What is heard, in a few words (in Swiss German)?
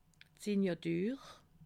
"signatur", alsace